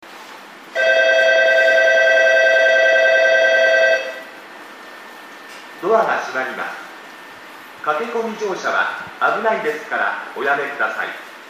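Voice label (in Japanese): 八千代緑が丘駅 駅放送; 発車 音質が良く、静かに響く 発車放送のみ差し替えました。; yachiyo-midorigaoka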